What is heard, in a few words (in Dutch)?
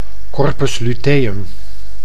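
"corpus luteum", Dutch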